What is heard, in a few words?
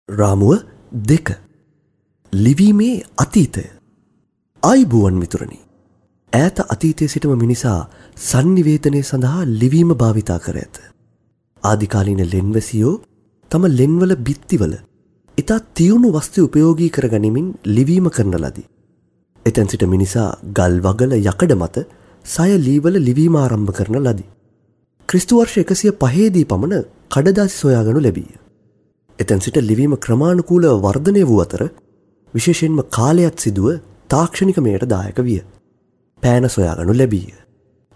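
Sinhala male voice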